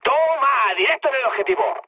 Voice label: bomb success mood med; speech; War Thunder

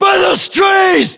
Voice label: landmark near trees, US

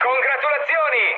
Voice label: player killed enemy mood med, speech it

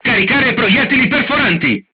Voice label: load AP, crew tanks italian; War Thunder

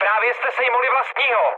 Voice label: player killed ally, speech cze, mood med; War Thunder

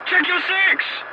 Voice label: voice message check your six, english; War Thunder

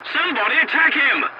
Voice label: voice message attack target, english; War Thunder

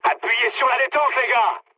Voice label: attack position mood med, speech fr; War Thunder

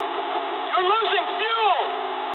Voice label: eng tank damaged mood med, speech eng

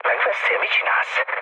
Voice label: player killed ally, mood low, speech hun

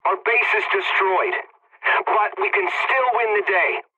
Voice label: pilot base destroyed ally, english; War Thunder

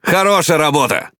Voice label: Ru Speech Good Game; World Of Warships; Quick Commands

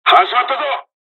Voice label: dialog, dialogs japanese; War Thunder

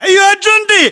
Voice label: rank private, localized english battlechatter

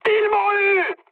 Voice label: aircraft destroyed mood high, speech fr

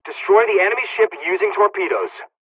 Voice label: torpedo attack, dialogs serbian; War Thunder

